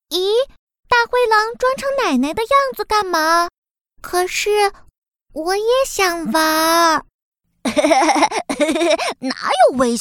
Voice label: Character Playing Different Child voices Mandarin

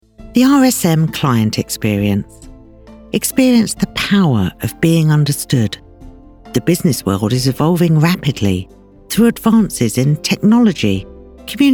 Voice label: explainer

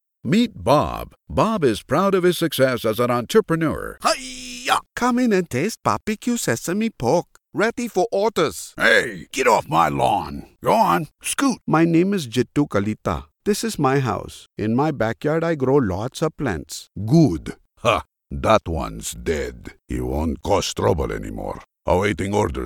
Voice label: Character accents

